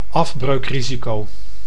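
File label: "afbreukrisico", Dutch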